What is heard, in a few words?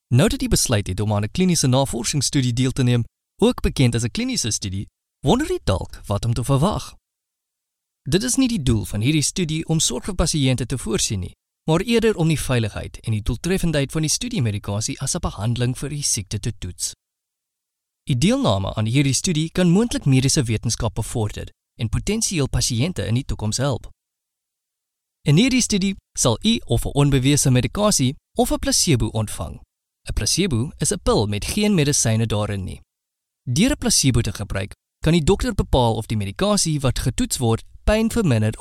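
Afrikaans, male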